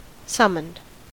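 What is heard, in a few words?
"summoned", American English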